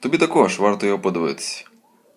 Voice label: dialogue, Ukrainian